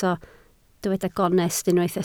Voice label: "gonest", Welsh